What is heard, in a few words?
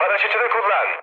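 Turkish bailout, mood med, speech; War Thunder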